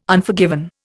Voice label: "Unforgiven", Indian